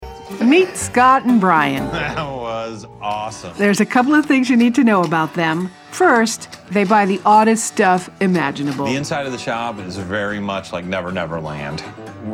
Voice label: Narration